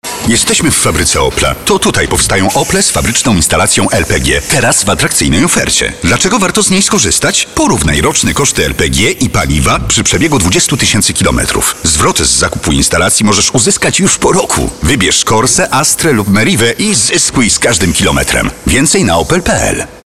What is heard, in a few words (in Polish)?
reklama; lpg